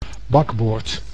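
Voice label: "bakboord", Dutch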